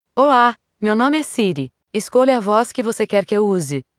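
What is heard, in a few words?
Brazilian Portuguese; iOS 26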